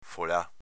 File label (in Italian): "folà", dialetto veneto